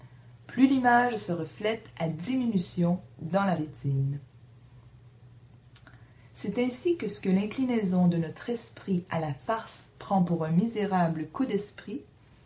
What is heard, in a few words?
female, French; mls samples; French Anonymizer